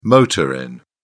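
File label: "motor inn", British English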